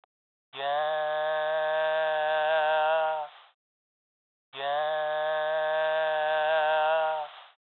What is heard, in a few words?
Vocal through walkie talkie